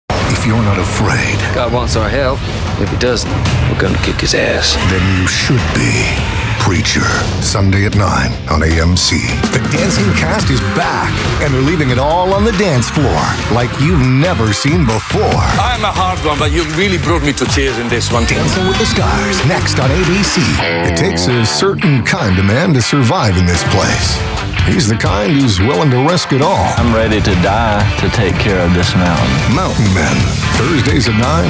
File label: Promo; TaDa